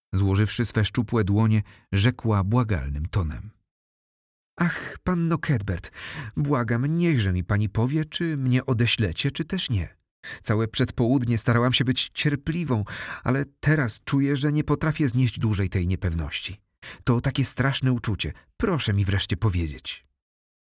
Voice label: Audio book